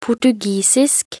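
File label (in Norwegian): "portugisisk", Norwegian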